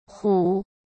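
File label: "虎", Mandarin Chinese; hsk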